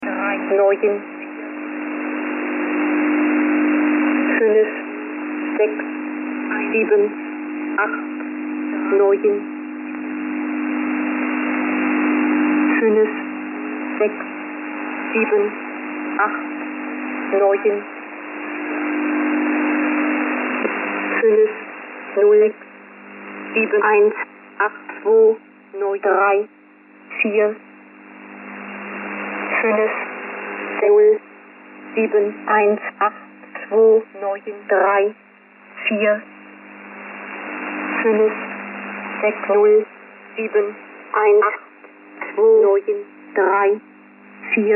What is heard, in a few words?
4569 usb mixed voices